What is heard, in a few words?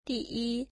"first", mandarin